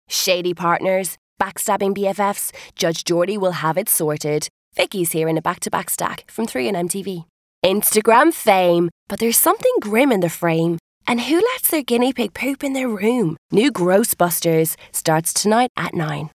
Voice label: Continuity; reels